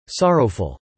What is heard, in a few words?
"sorrowful", English